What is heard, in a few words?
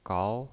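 "gao", Mandarin Chinese, second tone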